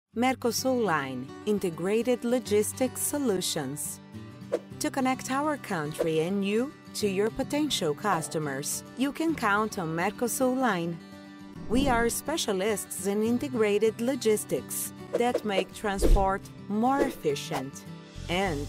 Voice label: English commercial